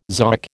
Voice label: "zoic", American